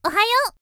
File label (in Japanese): 「おはよう」, 少女; 元気な少女